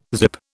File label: "zip", British